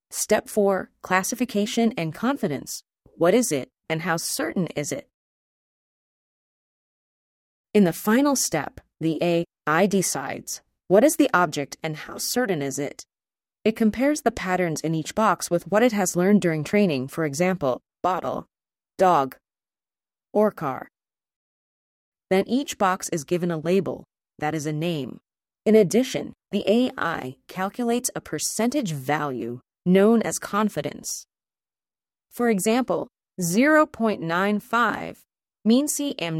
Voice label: english; schueler step 4